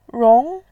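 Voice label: "rong", Mandarin Chinese, second tone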